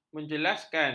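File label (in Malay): "menjelaskan", Malay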